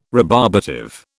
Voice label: "rebarbative", British

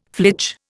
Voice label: "flitch", Indian

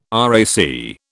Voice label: "RAC", British

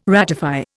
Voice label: "ratify", Indian

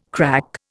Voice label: "crack", Indian

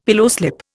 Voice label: "pillowslip", Indian; Dictionary

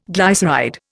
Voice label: "glyceride", Indian

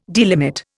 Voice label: "delimit", Indian

